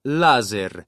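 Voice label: "laser" Italian; lazer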